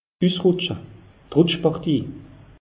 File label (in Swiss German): "usrutsche", alsace; meteo